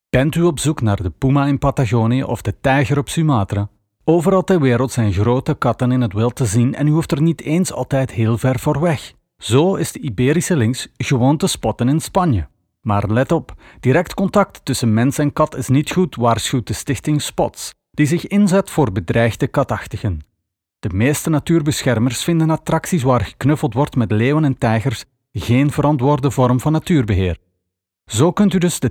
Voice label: Language Vlaams docu; BRT 1